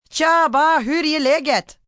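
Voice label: How's It Going Swedish, Greetings, female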